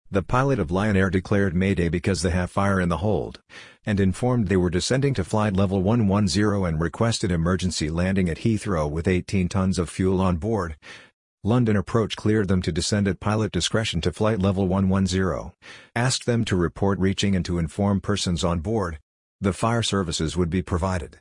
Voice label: Report Speech Situation Bird Strike